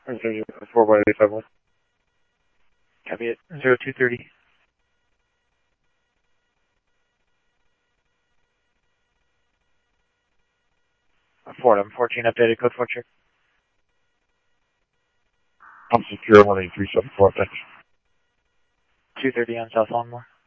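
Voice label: Mesa police department, scanner audio trunk; Mesa Simulcast; MESA PD 1 Fiesta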